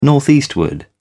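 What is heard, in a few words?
"northeastward", British English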